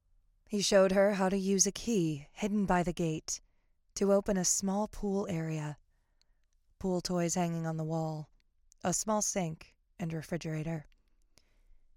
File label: Voiceover Audio Books; New York